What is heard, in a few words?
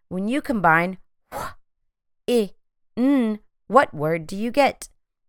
w ĭ n phonemic blending